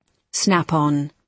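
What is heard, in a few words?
"snap on", British English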